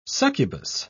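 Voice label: "succubus", British English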